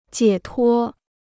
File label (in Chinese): "解脱", Chinese